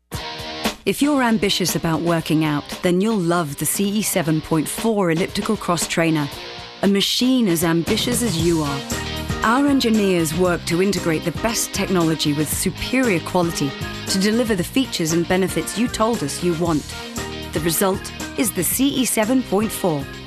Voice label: british narration; BRITISH » British character actress, with a knack for accents.